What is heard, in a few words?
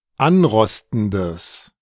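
"anrostendes", German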